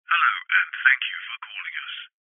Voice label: human voice call centre telephone recording male uk says hello and thank you for